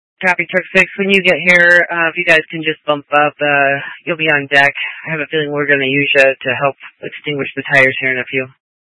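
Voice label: Incident Tactical 1, Scanner audio recording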